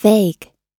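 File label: "vague", English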